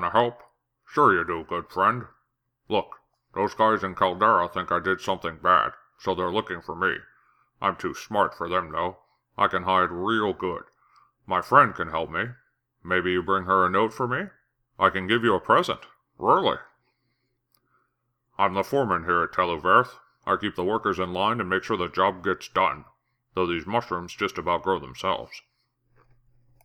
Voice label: orc audition